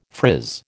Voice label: "frizz", American

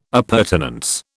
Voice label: "appurtenance", British

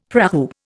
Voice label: "prahu", Indian; Dictionary

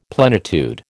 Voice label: "plenitude", American

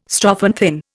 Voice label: "strophanthin", Indian

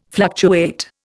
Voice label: "fluctuate", Indian

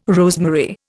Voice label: "rosemary", Indian

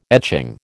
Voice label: "etching", American

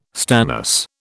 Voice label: "stannous", British

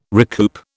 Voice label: "recoup", British

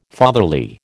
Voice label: "fatherly", American

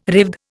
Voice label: "Revd", Indian

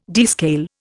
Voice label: "descale", Indian

